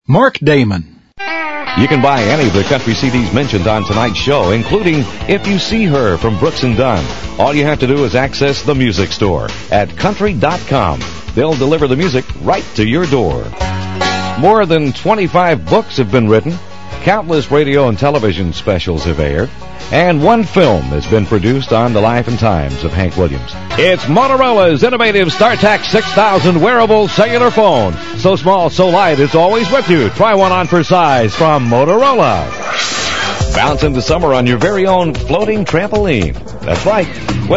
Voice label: all male; Male Talent